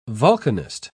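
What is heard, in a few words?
"volcanist", British English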